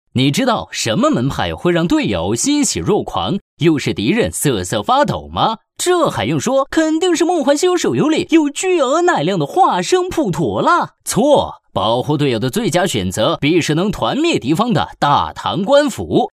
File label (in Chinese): 男, 游戏配音, 声音百变 轻松活泼; 大唐手游